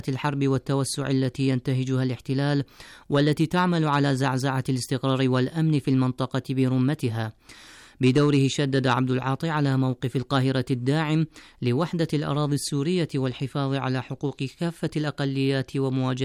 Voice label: Arabic